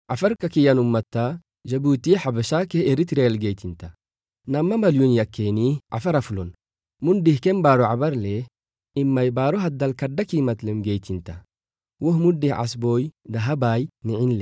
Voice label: Afar

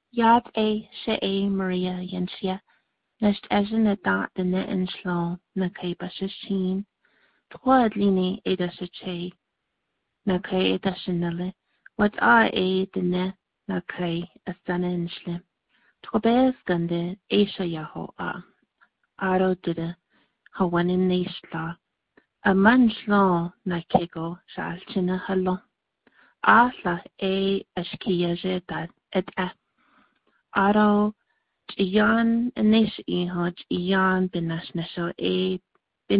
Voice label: NAVAJO

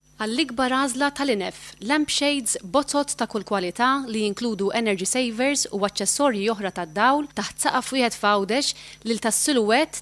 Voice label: Maltese

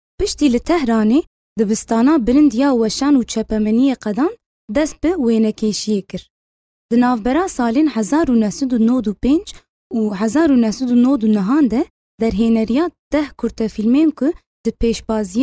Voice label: Kurdish Sorani

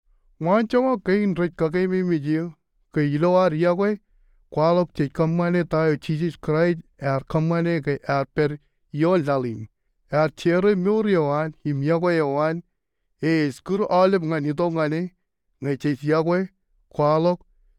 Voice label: Marshallese

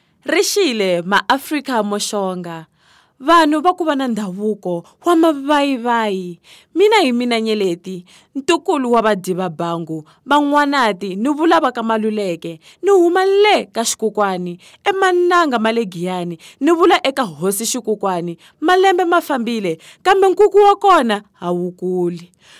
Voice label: TSONGA

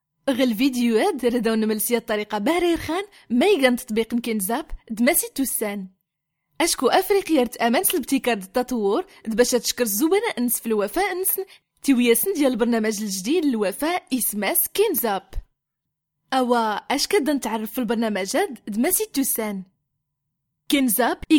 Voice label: Amazigh

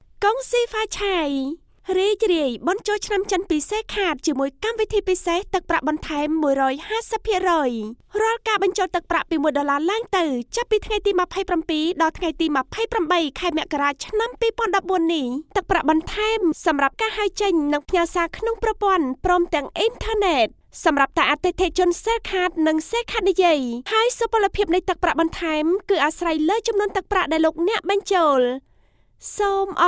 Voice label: Khmer

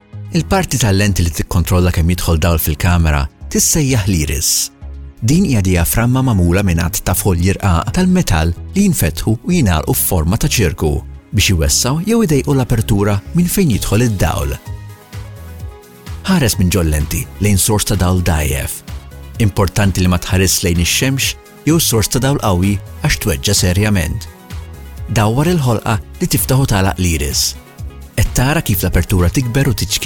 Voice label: Maltese

